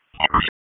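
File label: Police Spec Ops Tac, radio; Raleigh; wake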